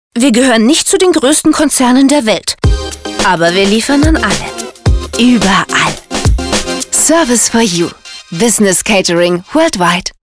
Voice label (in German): Claim Catering Jung